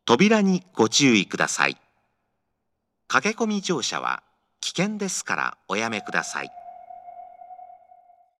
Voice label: 発車-4番（男声放送）, 名古屋市営地下鉄 桜通線 丸の内駅; nagoya city subway; marunouchi st

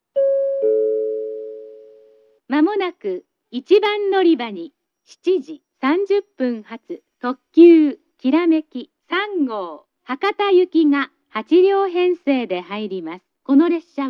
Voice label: announce; hakata; kirameki 3; jrkyushu; moji st

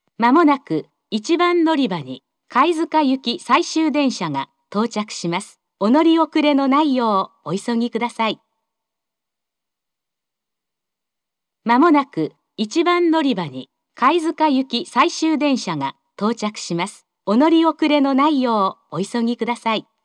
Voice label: 最終予告-貝塚行, 1番のりば放送; 福岡市地下鉄 空港線・箱崎線 中洲川端駅

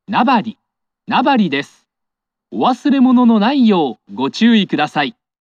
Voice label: ekimei, st announce; nabarist; kintetsu

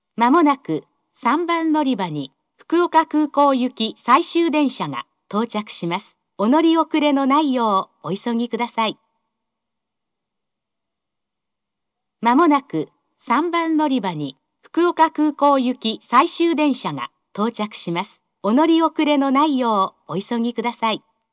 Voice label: 最終予告-福岡空港行, 3番のりば放送; 福岡市地下鉄 空港線・箱崎線 中洲川端駅

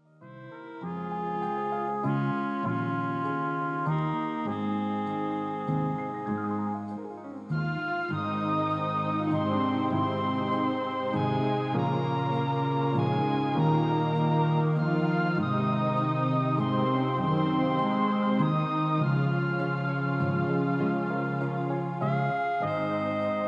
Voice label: 退場放送（蛍の光）, 終電後の放送; 福岡市地下鉄 空港線・箱崎線 中洲川端駅

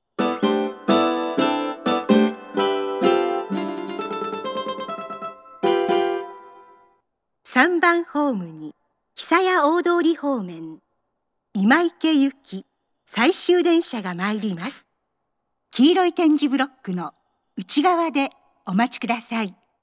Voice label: 接近-今池行き（最終）, 名古屋市営地下鉄 桜通線 丸の内駅 駅放送

